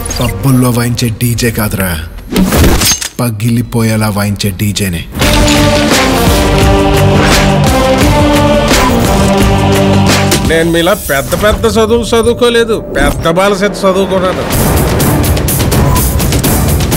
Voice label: Dialogue Bgm; DJ Duvvada Jagannadham Trailer; Allu Arjun